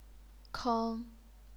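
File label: "keng", Chinese, first tone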